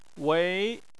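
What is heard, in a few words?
"wei", Chinese, second tone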